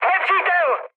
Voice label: enemy detected, speech cze, mood high; War Thunder